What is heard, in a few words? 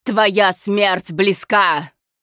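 Hero Critical Hit Russian, Женщина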